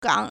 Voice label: "gang3", Chinese